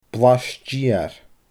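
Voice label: "blais deur", Scottish Gaelic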